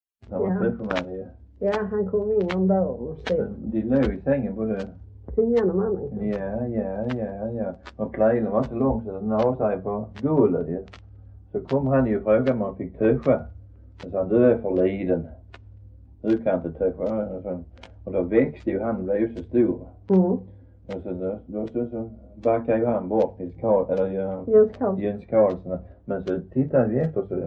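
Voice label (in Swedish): Röddinge Skåne, dialekter; Folkminnen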